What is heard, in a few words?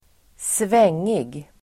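"svängig", Swedish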